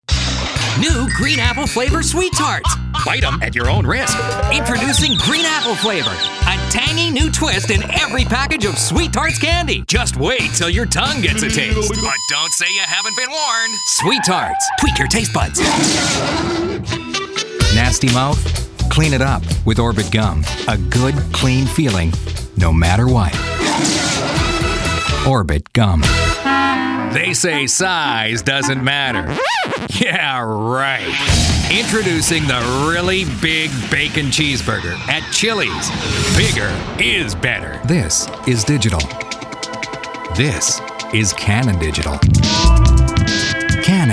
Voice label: Commercial Male, Young, Familiar, Upbeat

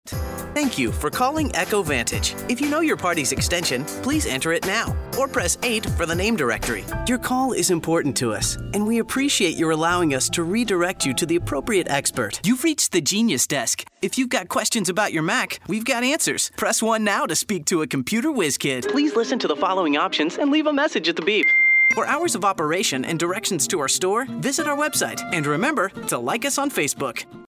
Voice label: On Hold IVR

